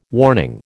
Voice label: "warning", American